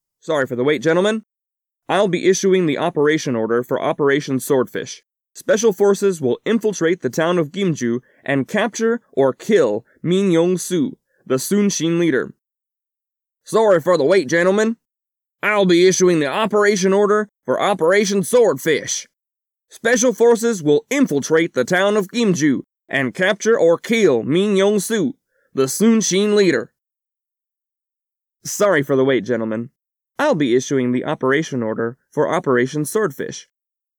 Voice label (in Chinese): 外籍英男 角色模仿